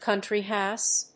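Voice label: "country house", English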